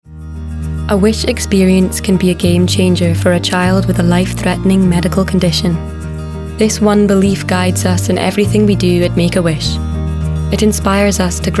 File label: female English Scottish kids narration; wish